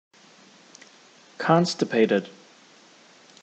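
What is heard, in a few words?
"constipated", American English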